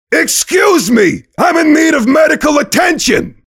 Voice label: mobster medic angry